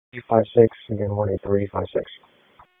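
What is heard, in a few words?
Police Southeast District Dispatch, p25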